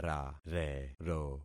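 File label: "rarero", concatenated syllables; Affixing experiment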